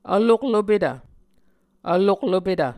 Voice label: "vloklopetv", Muscogee

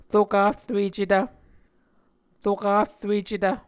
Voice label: "rokafruecetv", Muscogee

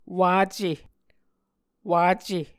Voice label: "wace", Muscogee

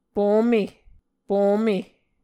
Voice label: "pome", Muscogee

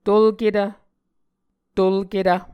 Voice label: "tolketv", Muscogee

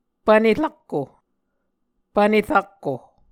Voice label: "pvne rakko", Muscogee; Mvskoke Words with Repeated Consonants; A Dictionary of Creek/Muskogee; SIL International

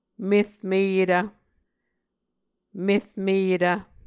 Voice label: "mermeyetv", Muscogee

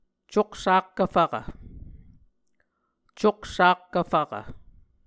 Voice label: "coksakkv fvkv", Muscogee; Mvskoke Words with Voicing Consonants; A Dictionary of Creek/Muskogee; SIL International

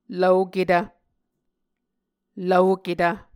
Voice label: "lvoketv", Muscogee

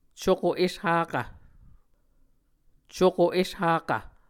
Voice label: "cuko eshakv", Muscogee

